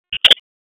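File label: Raleigh Police North District Tactical, p25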